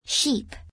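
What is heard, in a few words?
"sheep", English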